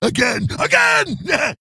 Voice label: Male Ghoul Attack